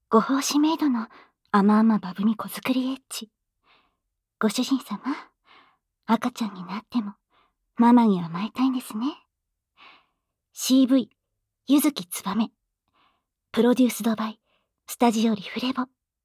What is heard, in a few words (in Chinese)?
標題朗讀